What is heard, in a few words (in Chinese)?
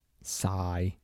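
晒-台城-男, 台山话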